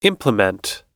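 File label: "implement", US English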